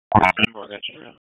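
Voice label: Police Northwest District Disp, radio; Raleigh; wake; p25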